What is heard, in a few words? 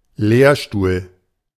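German "Lehrstuhl"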